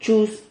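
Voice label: "chuz", Spanish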